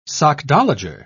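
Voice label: "sockdolager", British English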